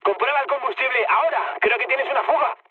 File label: tank damaged, mood med, speech; War Thunder